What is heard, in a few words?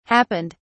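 "happened", British English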